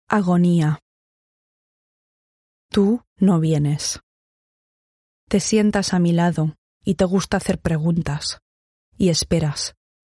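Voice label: Spanish, female, poem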